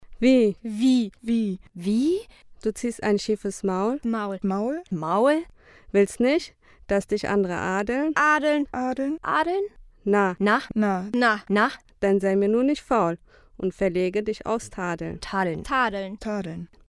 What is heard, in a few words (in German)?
Hoerbuch; Busch